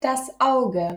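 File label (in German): "Auge", German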